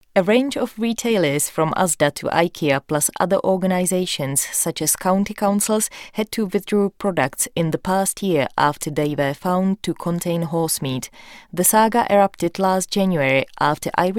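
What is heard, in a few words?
Czech, Accented English; voice demos; GoLocalise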